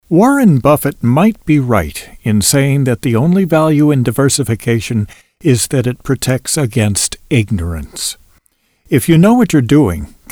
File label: Adult eLearning VO